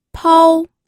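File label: "pou", Mandarin Chinese, first tone; pinyin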